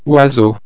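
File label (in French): "oiseau", French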